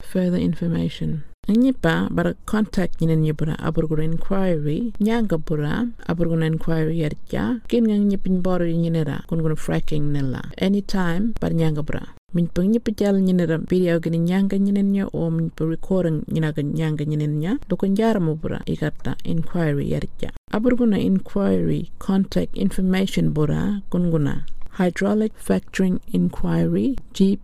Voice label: Burarra; FURTHER INFORMATION